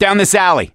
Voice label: misc directional, voice; gambler

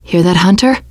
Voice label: heard hunter, teen girl; player; survivor; voice

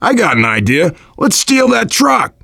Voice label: directional, voice, biker; Left 4 Dead 2; left4dead2 dlc3; survivor

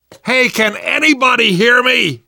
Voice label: call for rescue, survivor voice; namvet; Left 4 Dead 2; left4dead2 dlc2

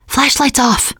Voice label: kill that light, teen girl; player; survivor; voice

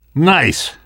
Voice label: nice shot, namvet, survivor voice; Left 4 Dead 2; left4dead2 dlc3

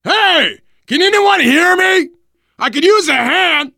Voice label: call for rescue, survivor voice biker

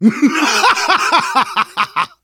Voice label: taunt, survivor voice; manager; Left 4 Dead 2; left4dead2 dlc2

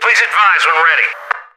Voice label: radio nag, soldier; Left 4 Dead 2; left4dead2 dlc3; npc

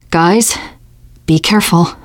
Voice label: warn careful, teen girl; player; survivor; voice

